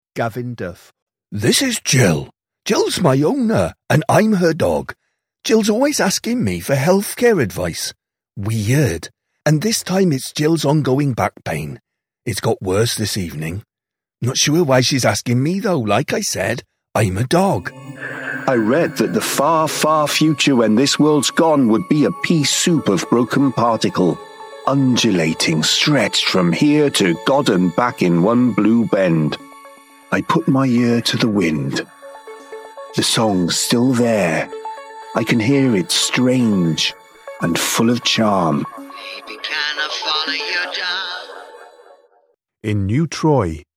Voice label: Voicereel English with West Wales accent

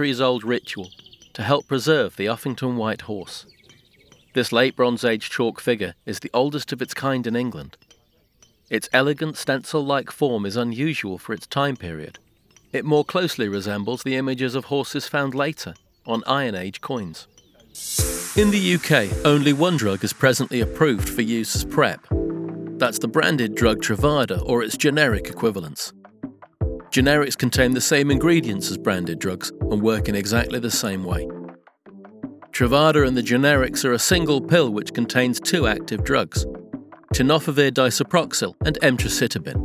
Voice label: Natural Voice

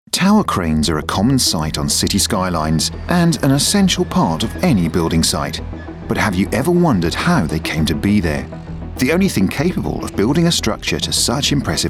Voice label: Documentary; Life of Cranes

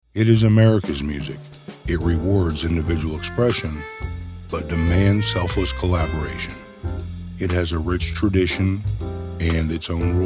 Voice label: NARRATION

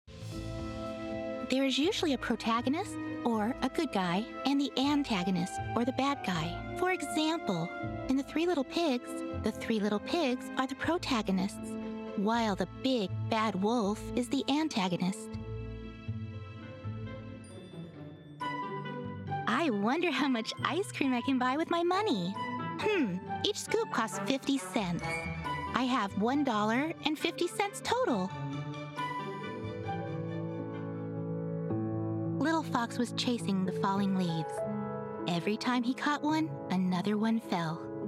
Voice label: K12 elearning

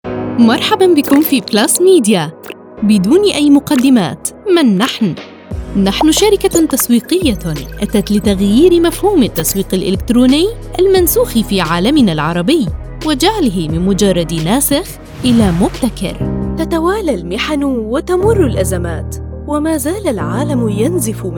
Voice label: Modern arabic standard

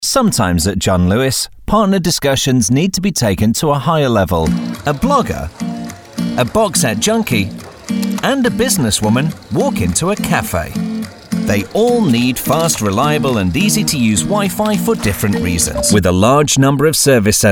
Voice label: corporate mix

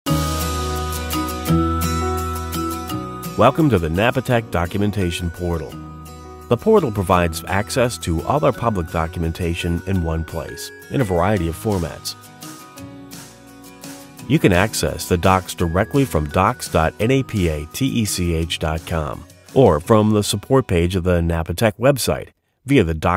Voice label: Corporate Narration

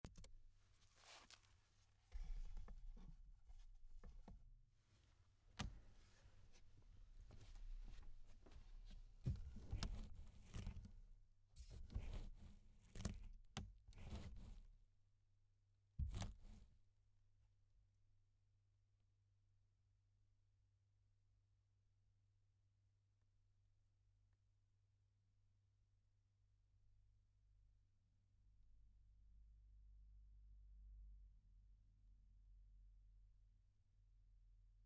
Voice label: Home Studio Test With HPF

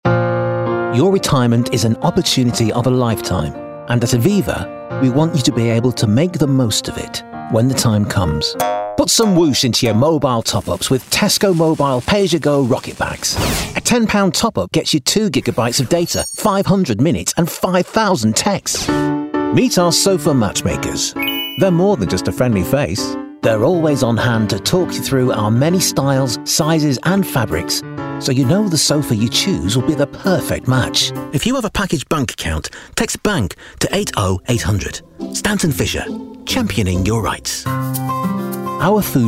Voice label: Commercial Voice

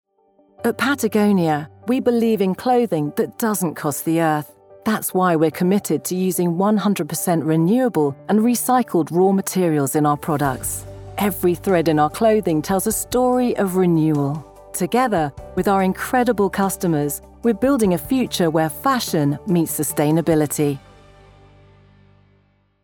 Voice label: Narration; PATAGONIA

